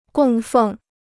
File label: "供奉", Chinese